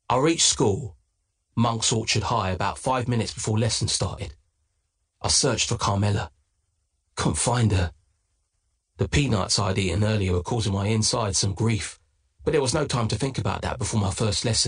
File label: AUDIOBOOK; THE HUMILIATIONS OF WELTON BLAKE